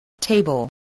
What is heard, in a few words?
"table", English